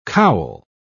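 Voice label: "cowl", English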